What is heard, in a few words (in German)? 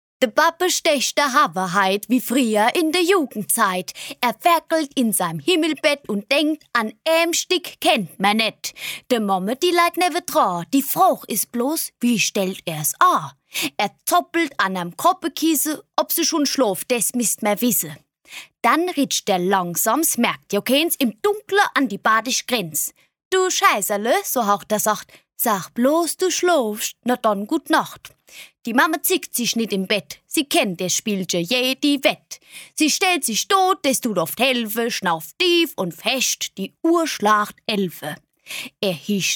Pfälzisch